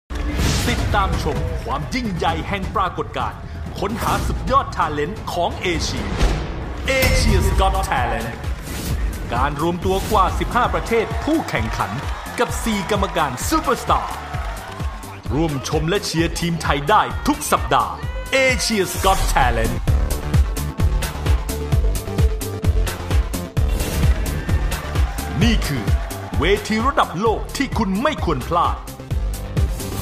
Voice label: Thai M; Asias Got Talent